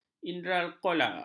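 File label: "inderaloka", Malay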